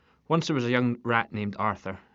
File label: Scottish